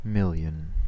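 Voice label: "million", American English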